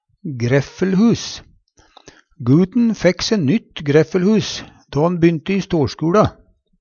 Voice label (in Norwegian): greffelhus, Numedalsmål